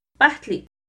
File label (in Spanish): medicina-pahtli, Náhuatl; NAHUATLAHTOLLI